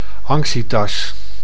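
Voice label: "anxietas", Dutch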